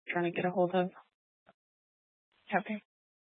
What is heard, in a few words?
Police Dispatch; Police 1 Dispatch